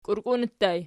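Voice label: "kiurkiuni" ergative plural, Archi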